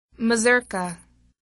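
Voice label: "mazurka", English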